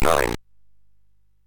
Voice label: MOS6581R3, Electronic Speech Systems